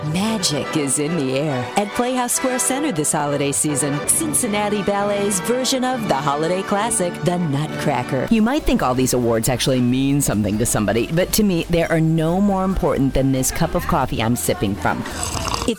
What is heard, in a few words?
commercial